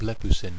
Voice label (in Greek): "blepousi", Greek